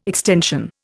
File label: "extension", Indian